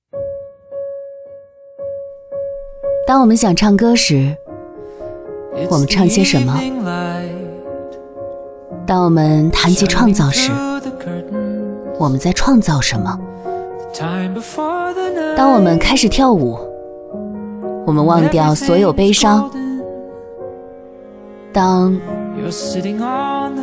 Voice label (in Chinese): 女-旁白-时尚个性冷淡